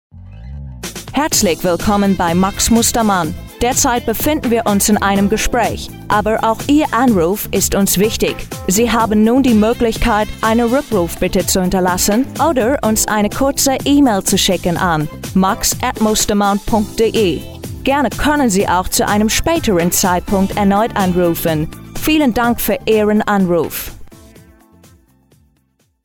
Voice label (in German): Telefonansage Amerikanisch